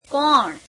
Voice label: "who", gujarati; કોણ; keoṇe

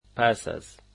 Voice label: after, Dari

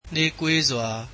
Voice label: "slowly", Burmese

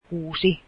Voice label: six, finnish

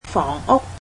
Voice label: cantonese houses

